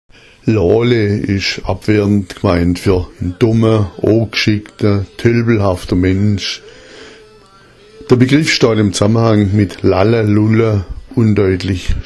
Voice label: "lole", schwaebisch

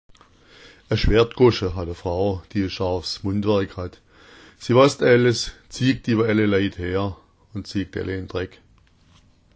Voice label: "schwertgoscha", schwaebisch

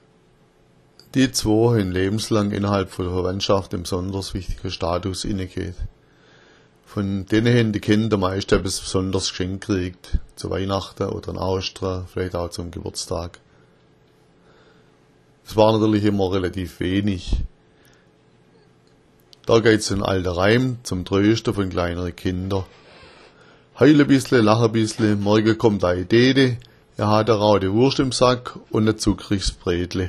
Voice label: dete, schwaebisch

